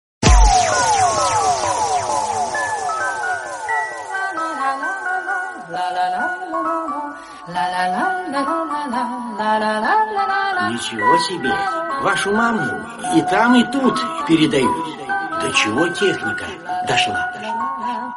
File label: russian message